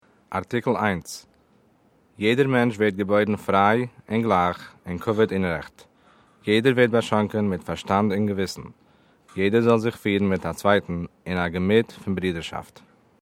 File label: Yiddish